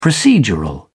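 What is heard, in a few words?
"procedural", English, British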